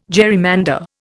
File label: "gerrymander", Indian